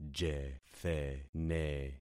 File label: "jethene", concat syllables; Affixing experiment